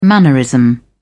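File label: "mannerism", British English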